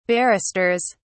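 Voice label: "barristers", British English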